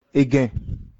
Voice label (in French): "machoire", Fon